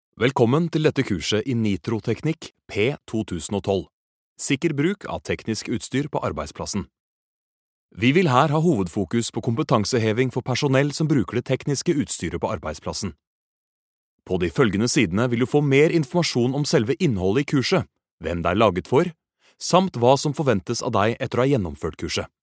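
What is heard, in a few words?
Norwegian elearning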